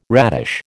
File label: "radish", American